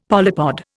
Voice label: "polypod", Indian; Dictionary